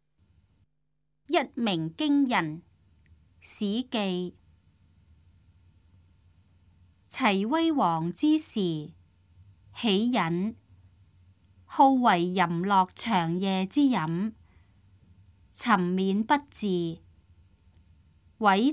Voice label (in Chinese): cantonese; 一鳴驚人; 粵語